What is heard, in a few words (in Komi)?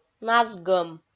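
"назгӧм" Komi, female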